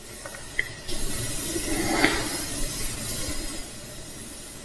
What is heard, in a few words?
male response - yes I am